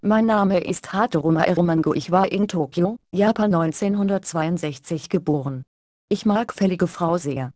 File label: realspeak german